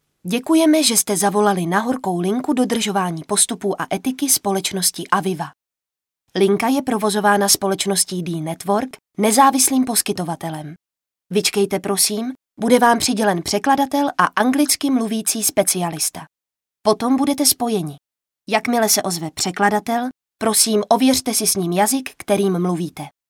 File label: Female Czech IVR